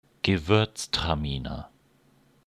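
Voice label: pronunciation de gewürztraminer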